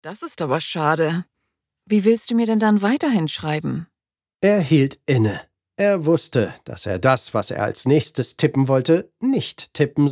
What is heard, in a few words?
Romance German